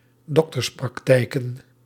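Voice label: "dokterspraktijken", Dutch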